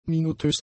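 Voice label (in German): "minutiös", German; adjectives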